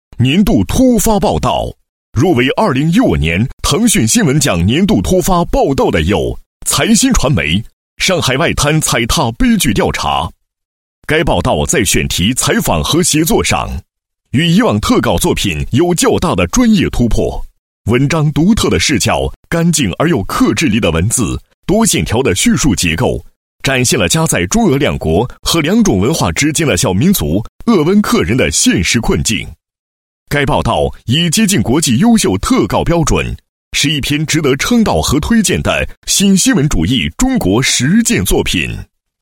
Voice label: 男 颁奖; 年度突发报道

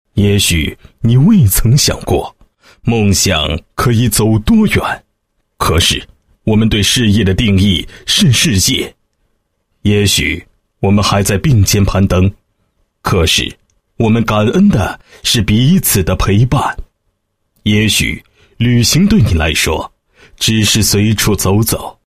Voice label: 男 诗歌, 配音; 从心出发旅行

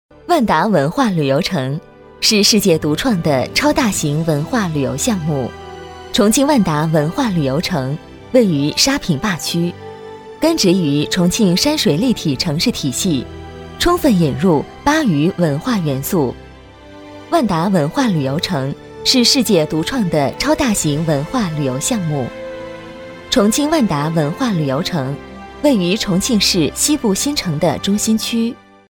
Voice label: 女 专题, 国语女声配音; 重庆万达文化旅游城

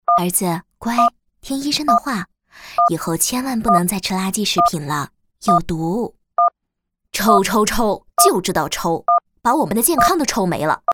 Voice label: 年轻妈妈 中年妇女 样; 人物样音

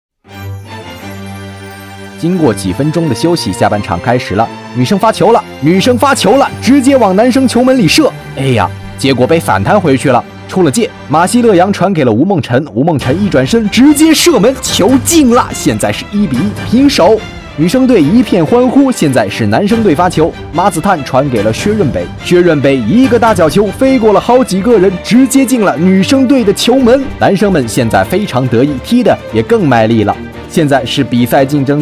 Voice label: 男 解说词 足球比赛

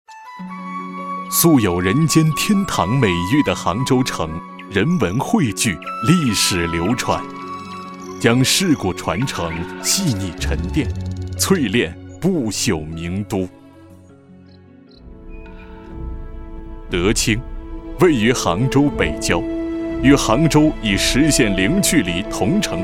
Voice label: 大气专题; 莫干山

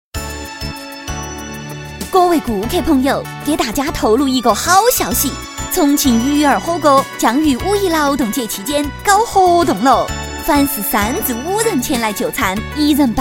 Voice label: 女 四川方言 火锅促销; 鱼儿火锅

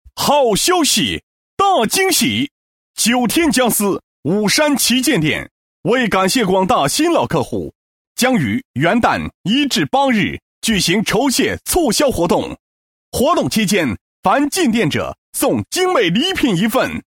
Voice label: 男 促销; 九天家私武山旗舰店元旦促销

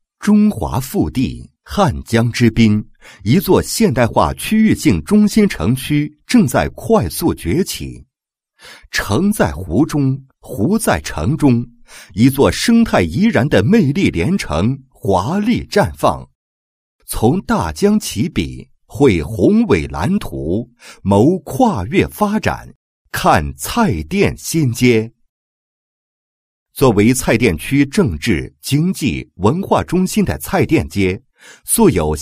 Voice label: 男-地区宣传, 配音案例; 首善之街 魅力莲城

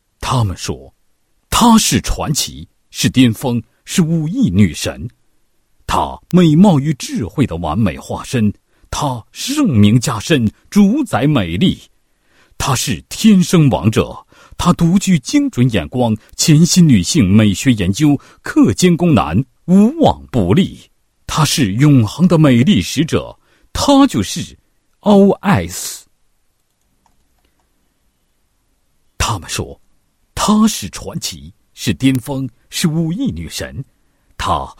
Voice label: 男 人物 个人宣传 美学研究, 配音案例

